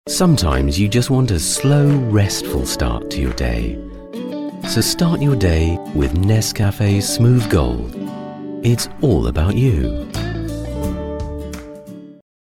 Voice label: Commercials Coffee